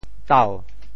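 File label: "tau2", Teochew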